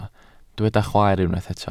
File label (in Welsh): "chwaer", Welsh